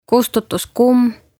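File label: "Kustutuskumm", Estonian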